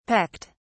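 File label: "pecked", British English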